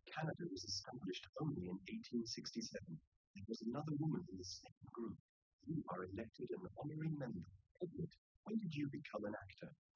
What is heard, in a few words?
male4 liverec 250ms 5cm sim; SiSEC08; SiSEC underdetermined